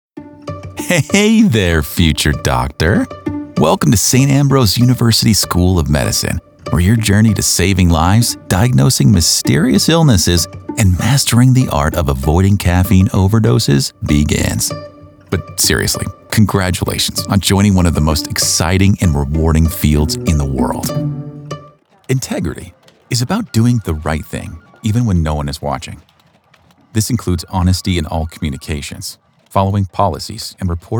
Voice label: eLearning